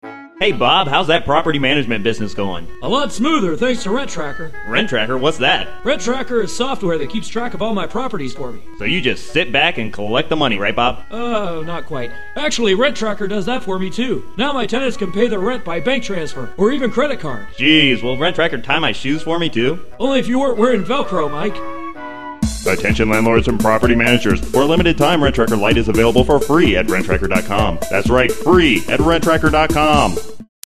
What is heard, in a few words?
Commercial